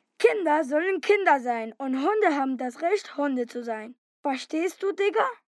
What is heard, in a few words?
german child voiceover locutor infantil aleman, German child voice; locutor nino aleman, german child voice